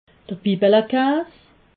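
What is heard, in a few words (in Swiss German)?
"bibeles kaas", Alsatian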